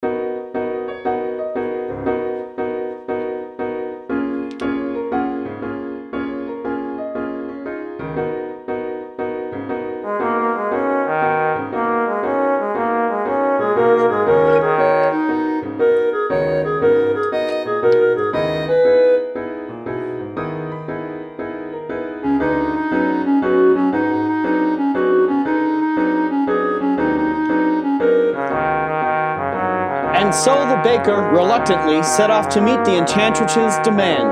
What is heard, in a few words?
Act I Opening with narration